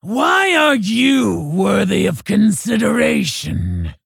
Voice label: Female Orc Fight Begins

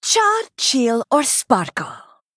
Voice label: Female Draenei

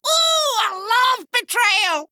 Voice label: Male Imp Attack

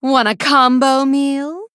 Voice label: Female Blood Elf Emote

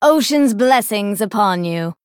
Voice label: Female Dryad Greetings

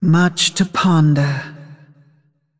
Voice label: Female Dragon Thinking

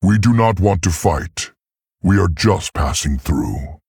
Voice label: Male Tauren

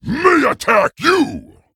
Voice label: Male Ogre Attack

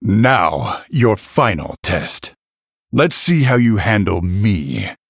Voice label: Male Human; Varian; Jaina

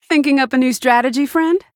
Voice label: Female Human New Game

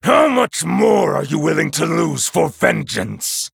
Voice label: Male Night Elf

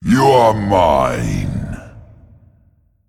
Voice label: Male Demon Attack; Archimonde

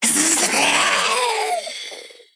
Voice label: Female Sethrak Death

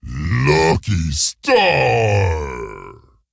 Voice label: ogre happy new year lunar; Boulderfist

